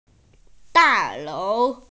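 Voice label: "building", Mandarin; da4lou2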